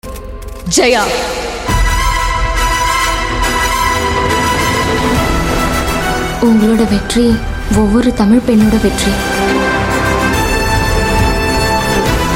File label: Tamil Dialogue Ringtone; Thalaivi Trailer